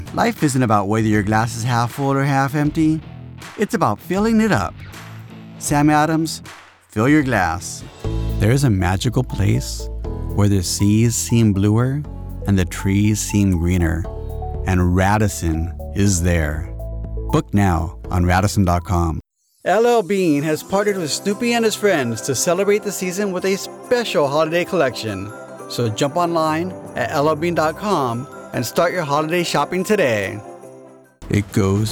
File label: VO commercial